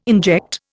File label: "inject", Indian